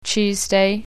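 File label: "tuesday", English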